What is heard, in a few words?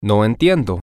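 I dont understand, Spanish, male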